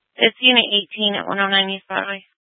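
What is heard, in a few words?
Tempe police department, scanner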